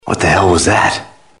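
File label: "spy", male; tf; max payne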